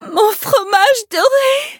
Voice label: defeat, fr; Cookie Run

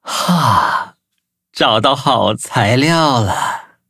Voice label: levelup zh-hant; cookie run

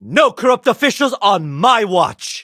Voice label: victory, en

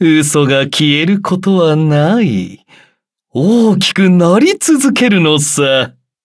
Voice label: upgrade, ja; Cookie Run

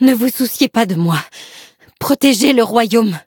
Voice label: defeat, fr; cookie run

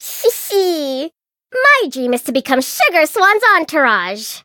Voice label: eng menu idle; blue slushy

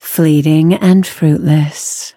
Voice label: levelup, en; Cookie Run

